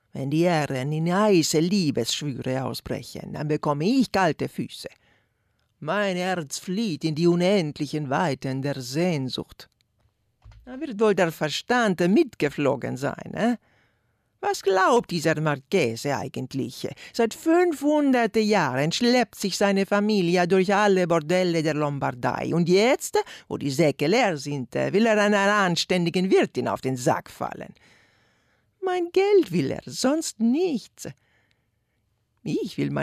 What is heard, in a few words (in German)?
akzent ital; mirandolina ausschnitt